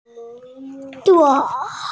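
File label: "zuo4", Mandarin Chinese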